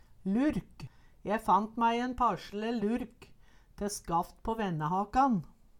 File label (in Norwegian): lurk, Numedalsmål